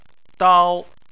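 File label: "dao" first tone, Chinese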